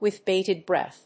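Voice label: "with bated breath", English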